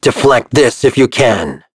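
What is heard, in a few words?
Vox Skill, voices heroes English; Shakmeh; kings raid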